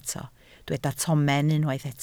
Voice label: "tomen", Welsh